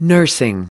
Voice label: "nursing", us